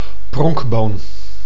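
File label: "pronkboon", Dutch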